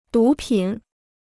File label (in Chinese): "毒品", Chinese